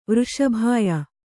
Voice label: "ವೃಷಭಾಯ", Kannada